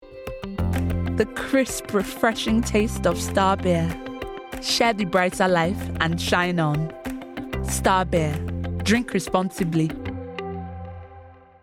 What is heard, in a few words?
NIGERIAN ACCENT COMMERCIAL